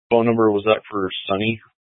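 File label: police dispatch radio; Police 1 Dispatch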